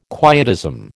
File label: "quietism", American